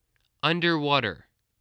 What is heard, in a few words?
"underwater", spelling